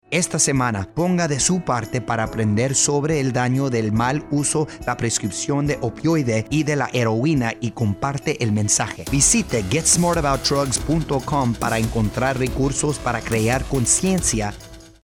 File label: Opioid Awareness Radio, Spanish; DEA